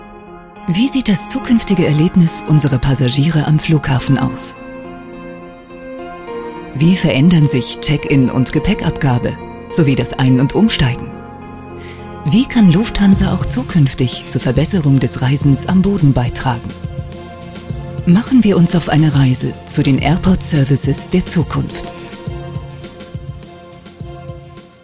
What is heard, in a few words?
Imagefilm, en-GB; Lufthansa